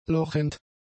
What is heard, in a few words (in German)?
"lochend", German; adjectives